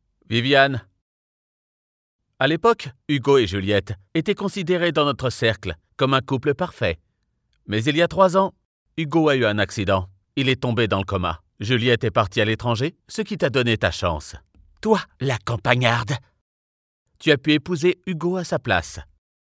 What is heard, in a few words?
locutor frances doblaje french dubbing